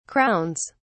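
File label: "crowns", British English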